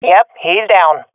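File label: i killed enemy, swat female; vo; Counter Strike Model Pack; CS:GO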